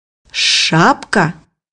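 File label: "hat", Russian; bukvar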